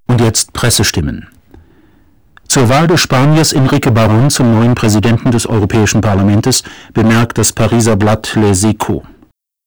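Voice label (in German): Sprache clipping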